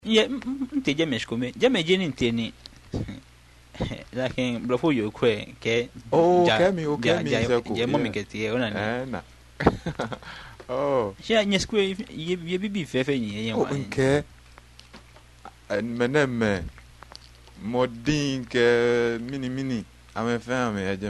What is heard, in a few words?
conversation, Ga